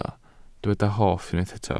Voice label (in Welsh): "hoff", Welsh